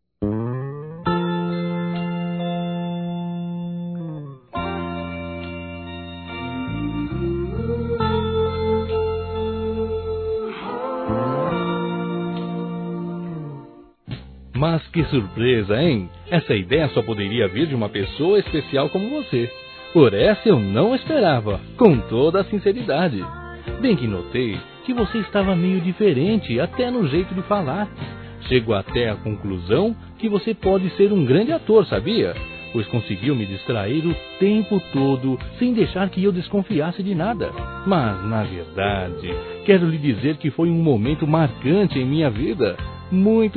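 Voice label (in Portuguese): AGRADECIMENTO AO AMIGO PELA FESTA DE ANIV., masculino; Telemensagens; Convites e Agradecimentos; Telemensagens 2017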